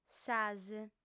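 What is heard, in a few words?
"sas", female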